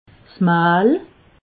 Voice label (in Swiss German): "mahl", Alsace